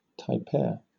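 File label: "tapir", British English; pronunciation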